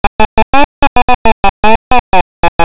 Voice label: El saxofón se toca con paciencia práctica, Castellano femenino sin estudios superiores; Frases enunciativas; Bullas

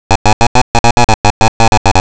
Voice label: El saxofón se toca con paciencia y con amor, Castellano masculino sin estudios superiores; Frases enunciativas; Bullas

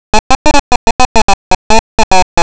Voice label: La cítara se toca con obsesión finita, Castellano femenino sin estudios superiores; Frases enunciativas; Bullas

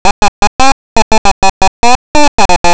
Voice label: El saxofón se toca con paciencia y con amor, Castellano femenino sin estudios superiores; Frases enunciativas; Bullas

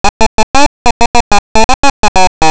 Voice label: El saxofón se toca con pánico finito, Castellano femenino sin estudios superiores; Frases enunciativas; Bullas

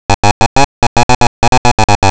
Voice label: El saxofón se toca con pánico y con amor, Castellano masculino sin estudios superiores; Frases enunciativas; Bullas

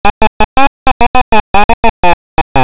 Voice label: El saxofón se toca con pánico práctico, Castellano femenino sin estudios superiores; Frases enunciativas; Bullas

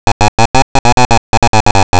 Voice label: El saxofón se toca con pánico finito, Castellano masculino sin estudios superiores; Frases enunciativas; Bullas

